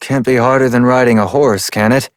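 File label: Adult, English; New War; Orbiter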